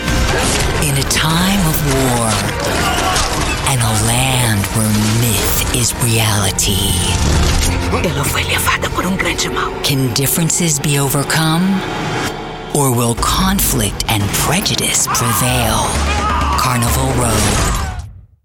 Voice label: english american movie trailers